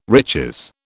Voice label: "riches", British